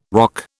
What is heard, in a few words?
"roque", British